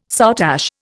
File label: "soutache", Indian